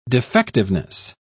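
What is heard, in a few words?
"defectiveness", British English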